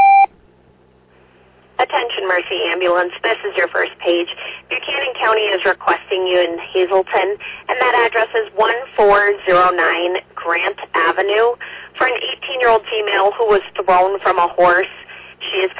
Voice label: Oelwein Ambulance, dispatch